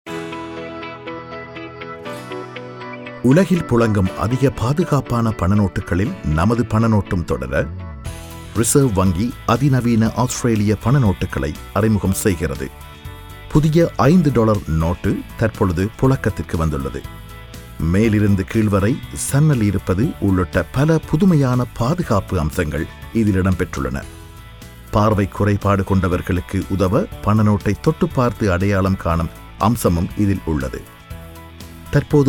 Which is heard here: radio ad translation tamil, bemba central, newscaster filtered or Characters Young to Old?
radio ad translation tamil